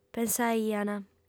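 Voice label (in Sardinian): "pensaiant", Sardinian, female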